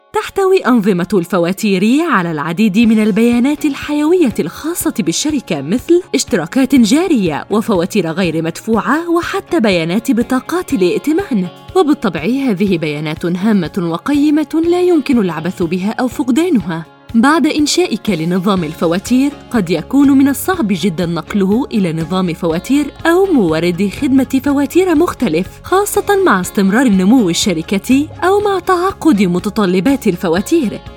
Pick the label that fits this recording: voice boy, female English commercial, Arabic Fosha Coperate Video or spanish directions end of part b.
Arabic Fosha Coperate Video